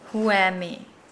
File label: "green coconut", Nukuoro